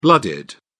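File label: "blooded", British English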